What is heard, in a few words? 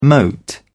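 "mote", British English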